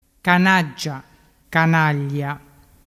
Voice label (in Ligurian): "canaggia" canaglia, dialettu